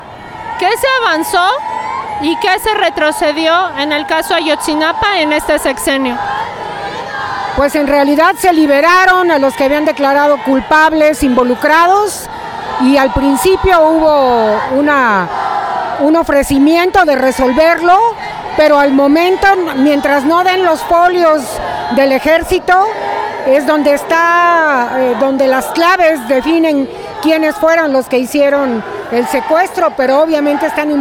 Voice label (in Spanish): Testimonio; Ayotzinapa; Mitin Ayotzi